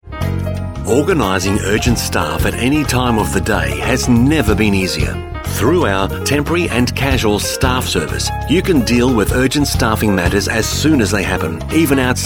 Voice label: employment recruitment messages on hold

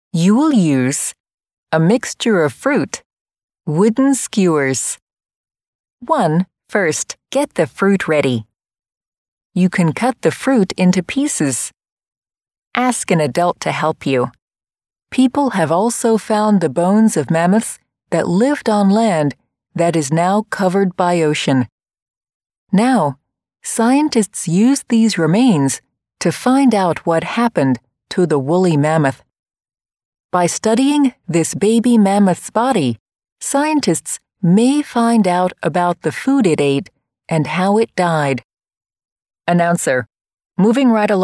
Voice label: american childrens audio book narration

